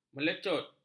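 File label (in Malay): "melecut", Malay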